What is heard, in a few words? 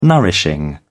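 "nourishing", British English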